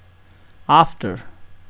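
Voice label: "after", Nepalese, male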